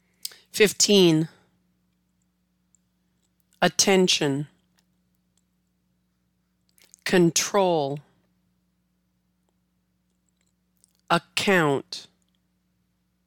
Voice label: plosives; plosive pronunciation

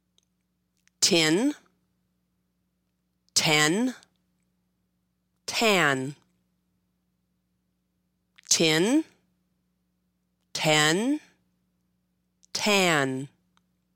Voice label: Tin Ten Tan, American English; long and short vowels in American English

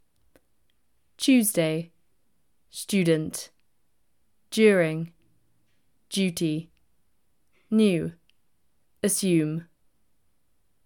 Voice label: Brit Tuesday to Assume, british english

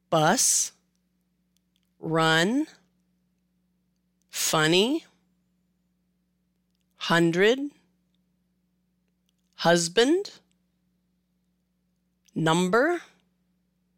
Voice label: Short U, American English; long and short vowels in American English

